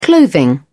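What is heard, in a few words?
"clothing", British English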